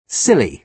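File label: silly, British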